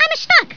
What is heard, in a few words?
I'm Stuck Squeaky; Mario; PC; MARIOSFUNDAMENTALS